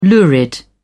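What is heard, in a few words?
"lurid", British English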